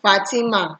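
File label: "fatima", Nigerian Pidgin, female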